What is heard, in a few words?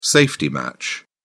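"safety match", British English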